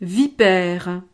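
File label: "vipere", French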